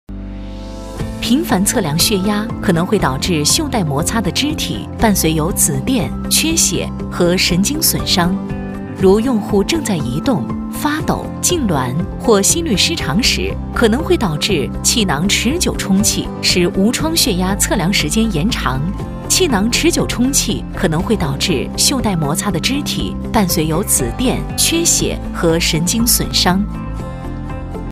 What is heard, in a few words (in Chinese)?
专题 医院 医疗 轻松